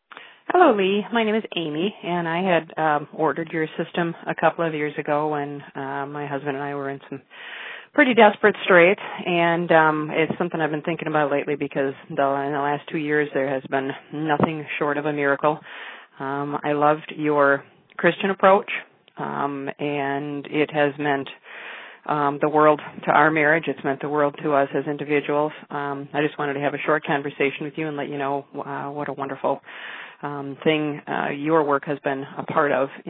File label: voicemail